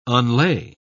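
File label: "unlay", English, British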